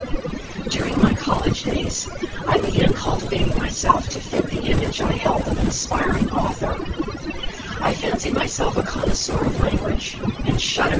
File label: male noisy